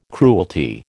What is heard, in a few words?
"cruelty", American